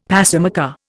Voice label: "pacemaker", Indian; Dictionary

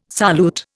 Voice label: "solute", Indian

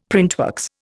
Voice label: "printworks", Indian; Dictionary

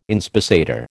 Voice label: "inspissator", American

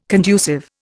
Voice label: "conducive", Indian

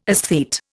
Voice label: "esthete", Indian

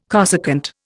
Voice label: "cosecant", Indian

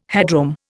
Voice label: "headroom", Indian

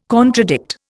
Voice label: "contradict", Indian

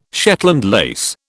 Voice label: "Shetland lace", British

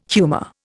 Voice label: "humour", Indian

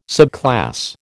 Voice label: "subclass", American

